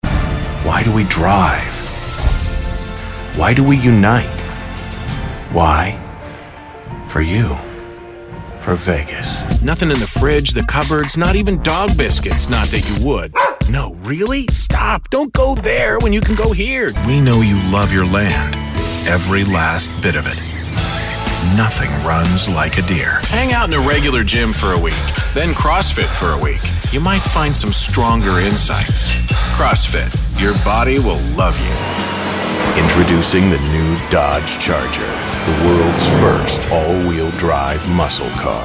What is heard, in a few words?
Male Voice Over Talent Commercial